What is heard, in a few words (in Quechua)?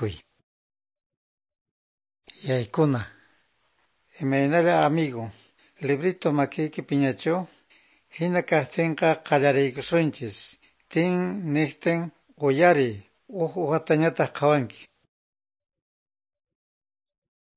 good news quechua norte de bolivia apolo